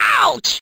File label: ouch cartoon; ooh ahh; ow ouch